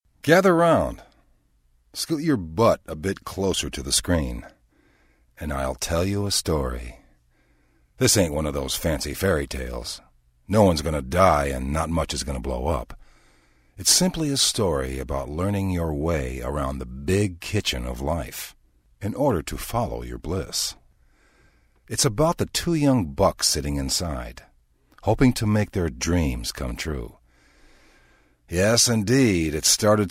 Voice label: audiobook